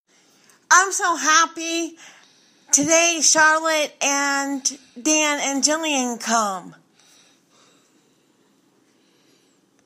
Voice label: happy